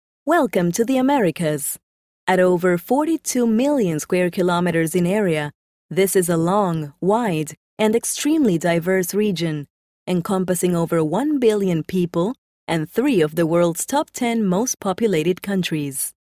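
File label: Latin American Spanish Accented English; GoLocalise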